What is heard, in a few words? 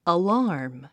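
"alarm", English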